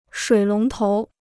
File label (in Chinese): "水龙头", Chinese